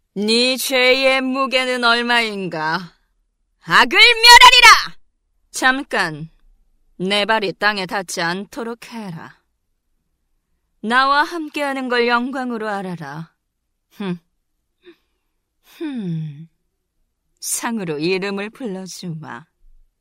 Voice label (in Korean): 독한 여왕 캐릭터 강력한 사악한 30대